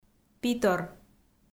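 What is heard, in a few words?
"питор", Komi, female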